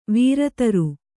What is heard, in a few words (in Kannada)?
"ವರುತ್ರ", Kannada